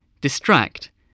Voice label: "distract", spelling